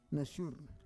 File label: "nasyur", Roon